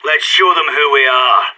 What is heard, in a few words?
pep talk, radio; SAS